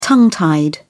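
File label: "tongue tied", British English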